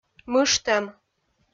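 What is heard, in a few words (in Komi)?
"мыжтӧм", Komi, female; kpv audio dict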